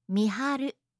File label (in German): "miharu", japanische